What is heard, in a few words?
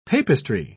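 "papistry", British English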